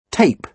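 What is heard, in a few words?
"tape", British English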